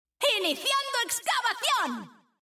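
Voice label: European Spanish; 探奇（守望先锋2）; 终极技能; 主动/敌方释放语音; 欧洲地区; 西班牙语; ¡Iniciando excavación!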